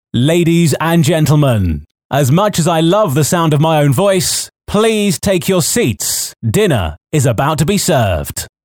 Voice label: Voice Of God